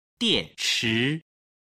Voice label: "dianchi", Chinese